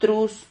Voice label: "trus", Silabas

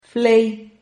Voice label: "flei", Silabas

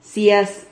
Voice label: "cias", Silabas

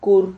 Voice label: "kur", Silabas

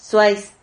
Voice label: "suais", Silabas

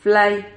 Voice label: "FLAY", Silabas

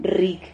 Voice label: "rrig", silabas

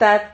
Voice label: "tad", Silabas

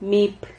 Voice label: "mip", Silabas

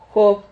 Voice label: "job", Silabas

